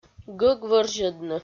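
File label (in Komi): гӧг вӧрзьӧдны, Komi, female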